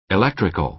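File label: "electrical" American English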